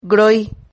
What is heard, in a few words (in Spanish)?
"groi", Silabas